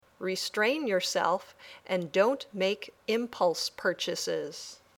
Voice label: Dictation